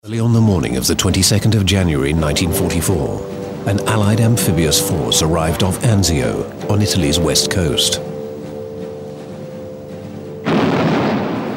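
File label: British docu war, male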